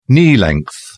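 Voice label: "knee-length", English, British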